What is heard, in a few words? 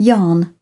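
"x ray", British English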